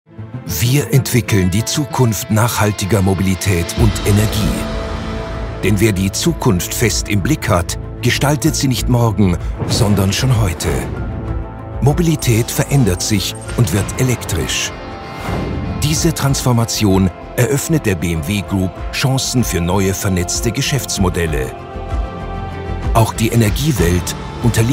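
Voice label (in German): Werbung Poetisch; Fremdtake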